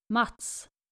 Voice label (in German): "mats", skandinavische jungennamen